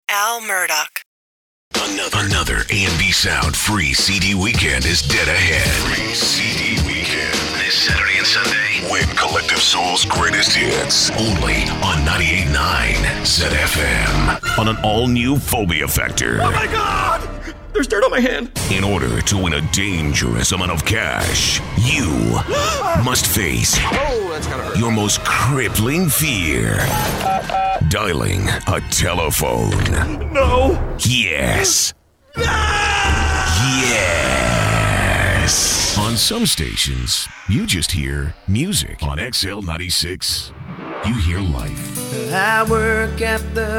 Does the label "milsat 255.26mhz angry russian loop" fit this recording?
No